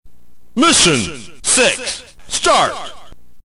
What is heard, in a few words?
narrator